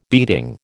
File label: "beating", American